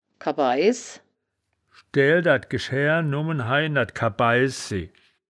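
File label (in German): "kabaisji", wittlicher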